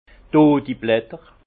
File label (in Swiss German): "dodiblatter", Alsace; vin; feuilles mortes